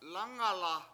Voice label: lAngala7 at my house, Washo